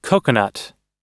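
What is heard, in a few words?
"coconut", American English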